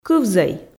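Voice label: "кывзӧй", Komi, female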